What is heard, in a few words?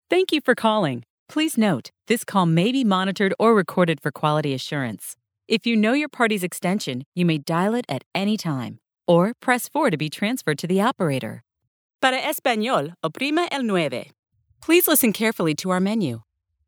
IVR On Hold